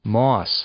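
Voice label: "moss", English; noun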